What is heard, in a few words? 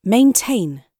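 "maintain", British English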